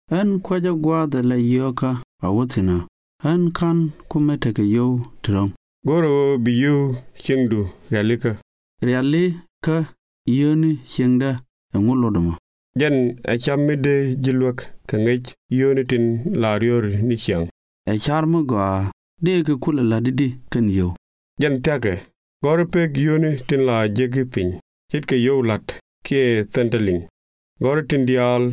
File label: household budgets nuer